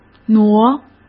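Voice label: "挪", Mandarin Chinese; hsk; chinese flashcards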